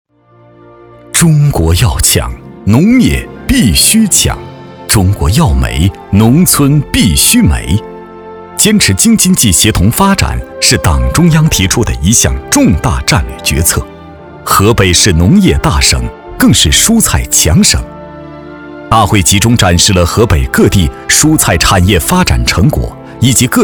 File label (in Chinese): 男, 宣传片; 凝心聚力